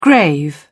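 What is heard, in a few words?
"grave", British English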